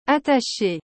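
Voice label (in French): "attacher", French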